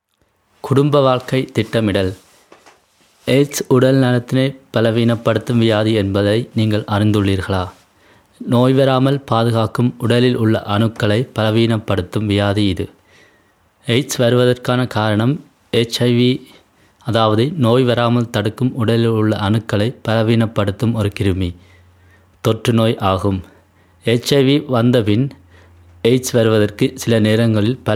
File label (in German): tamil